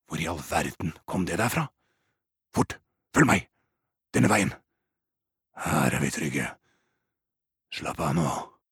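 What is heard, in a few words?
dub, Norwegian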